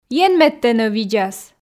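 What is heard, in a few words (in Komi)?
ен мед тэнӧ видзас, Komi, female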